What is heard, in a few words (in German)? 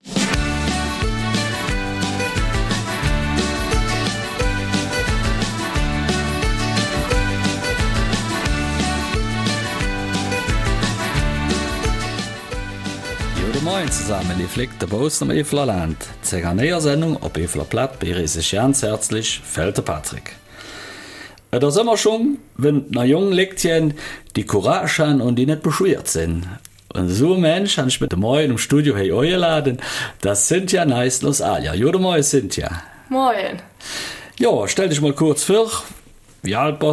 Eifeler Mundart; Traum von eigener Molkerei erfüllt